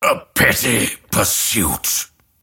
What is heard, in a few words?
attack pain; dota; grimstroke